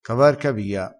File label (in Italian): "cavarcavia", crespinese